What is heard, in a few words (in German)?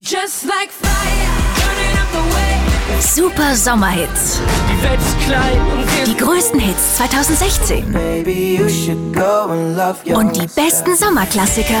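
Austrian Dynamisch; Fremdtake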